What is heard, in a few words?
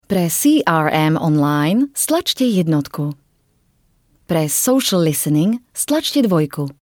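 Slovak Commercial; GoLocalise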